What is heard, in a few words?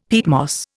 "peatmoss", Indian; Dictionary